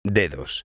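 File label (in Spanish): "dedos", Spanish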